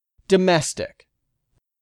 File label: "domestic", English; 3000 words